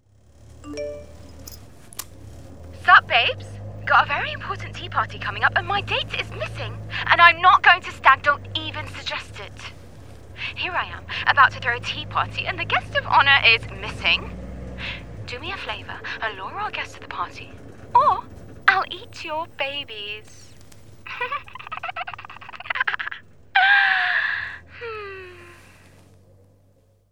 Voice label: female arabic and english english character; tiny tina